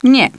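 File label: "no", female; TALKNOW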